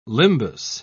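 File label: "limbus", British English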